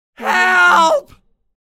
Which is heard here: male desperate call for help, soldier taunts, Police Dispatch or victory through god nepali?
male desperate call for help